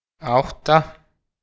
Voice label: "átta", Icelandic

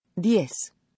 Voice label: "diez" American Spanish

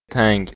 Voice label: "tang", Persian, M

